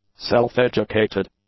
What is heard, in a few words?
"self-educated", English; adjectives